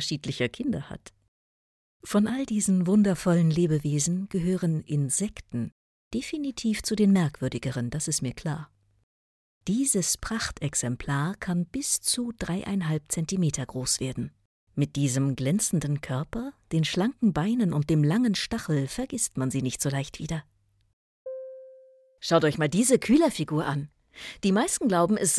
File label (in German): Protagonistin mix Mutter Erde Museumsputzfee Frau Held sehr engagiert CRM Managerin